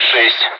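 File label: Speech, Russian, air traffic control; Digits; DCS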